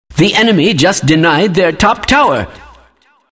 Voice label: announcer; Kleiner

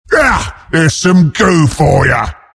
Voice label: nasal goo; bristleback

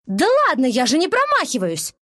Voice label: failure, ru; dota2

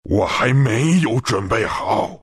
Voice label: not yet zh; Dota 2

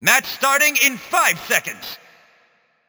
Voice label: Match Begin, Announcer; Mecha Commander; Paladins

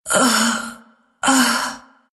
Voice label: death ru; dota2

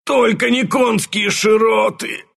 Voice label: death, ru; Dota 2

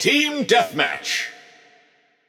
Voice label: Announcer Mode Team Deathmatch; BombKing

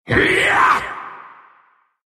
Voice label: attack big, ru; dota2

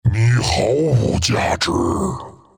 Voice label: kill, zh; Dota 2

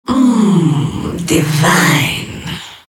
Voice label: happy; Phantom Assassin

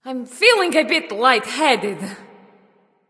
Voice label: Taunt Directed; Scarlet Coven; Bacchus; Smite

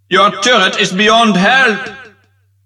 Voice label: turret low; Awesomenauts

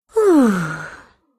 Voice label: happy; Crystal Maiden

